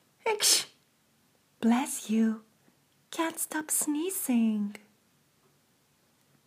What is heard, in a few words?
cant stop sneezing talk